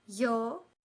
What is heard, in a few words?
"llo", Espagnol